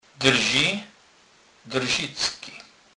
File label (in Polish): "drzi", kurpiow